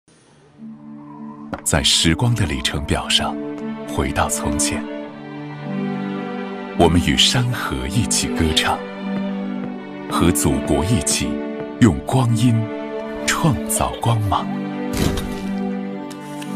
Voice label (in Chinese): 男 历史情感厚重 汽车盛典先导片; 央视